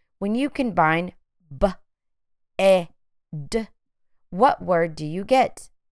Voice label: b ĕ d phonemic blending

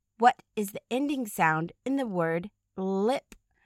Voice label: lip ending sound

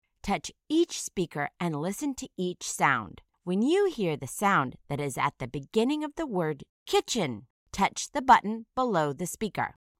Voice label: kitchen initial phoneme isolation

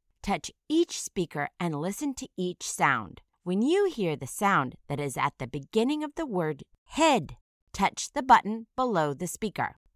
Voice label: "head", initial phoneme isolation

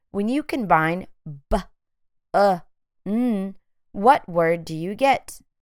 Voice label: b ŭ n phonemic blending

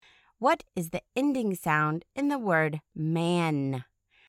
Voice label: man ending sound

